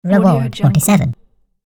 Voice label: cartoon voice says reward